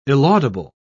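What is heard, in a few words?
"illaudable", British English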